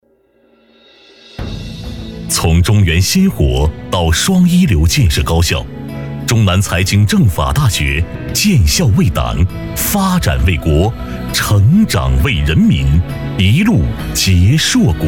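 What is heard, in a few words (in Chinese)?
男【宣传】; 政法大学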